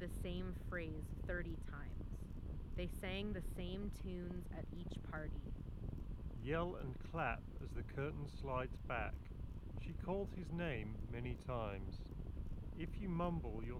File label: KZ ZSN Pro X Wind microphone sample